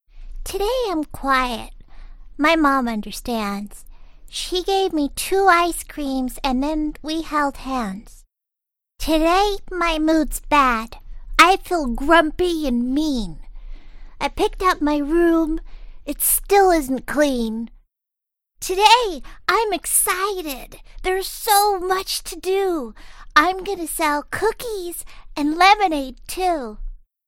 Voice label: female american english kids comercial; ideasonora